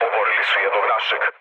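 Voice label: Serbian aircraft destroyed mood low, speech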